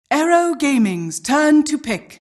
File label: announcer team pick